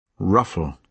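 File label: "ruffle", British English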